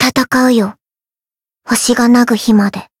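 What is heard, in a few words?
Voice chant, Japanese; nagi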